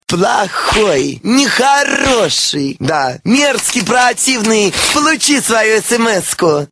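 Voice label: Naughty one, get your sms! (sexy); Nekhoroshii poluchi svoyu sms-ku!; seksi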